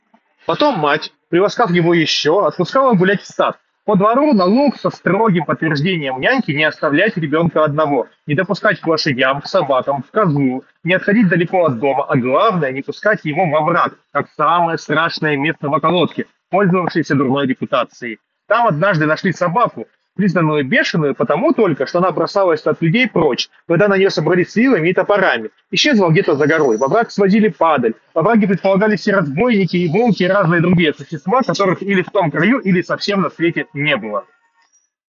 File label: Mic noise; oneplus nord buds 4 pro